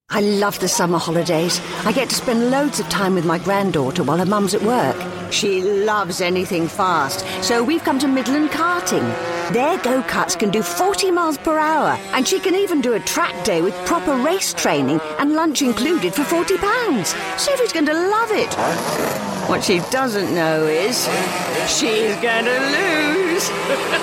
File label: radio commercials